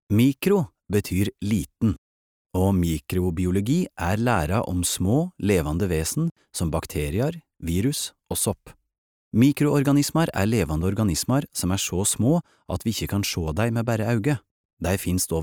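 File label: Norwegian nynorsk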